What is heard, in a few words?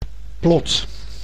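"plot", Dutch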